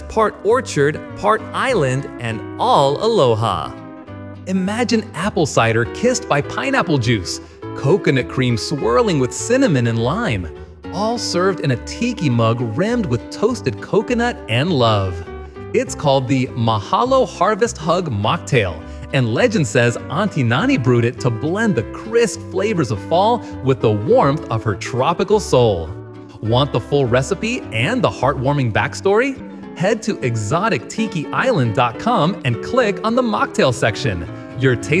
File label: Radio Promo; Mahalo Harvest Hug; mocktail